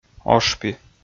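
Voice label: "ошпи", Komi, male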